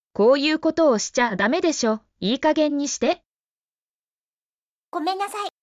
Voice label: Emotion Level: Frustration has peaked, final warning; 「こういうことをしちゃダメでしょ！いい加減にして！！（koiukotowoshichadamedesho！iikagennishite！！）」「ごめんなさい（gomennasai）」